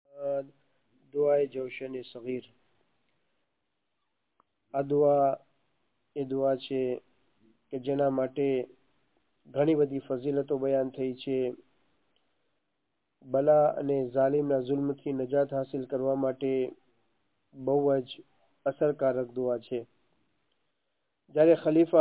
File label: Dua e Jawshane Saghir Gujarati; dushamano thi hifazat mate ni dua